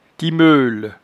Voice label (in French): "timeule", patois jura